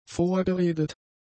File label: "vorgeredet", German; adjectives